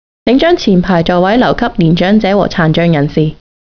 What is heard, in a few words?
Reserved Seats Cantonese; bayarea; SFMuni